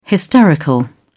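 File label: "hysterical", British English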